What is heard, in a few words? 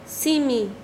"boca", Quechua Chanka